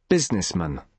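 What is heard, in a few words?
"businessman", British English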